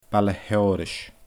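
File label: "Baile Sheòrais", Scottish Gaelic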